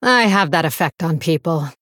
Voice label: Female Blood Elf; Valeera

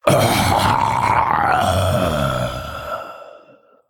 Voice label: Male Drakonid Death

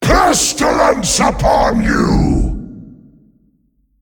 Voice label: Male Demon; BigAnetheron; Cariel

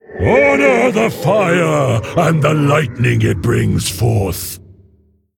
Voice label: Male Mogu; FIRE FESTIVAL

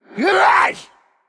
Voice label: Undead Ogre Attack

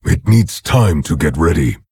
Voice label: Orc Emote ERROR SUMMON SICKNESS

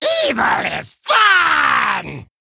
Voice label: Male Kobold Attack

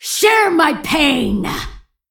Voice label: Female Kvaldir Attack

